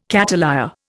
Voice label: "cattleya", Indian